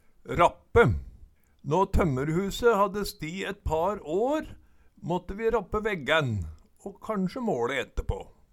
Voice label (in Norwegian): "rappe", Numedalsmål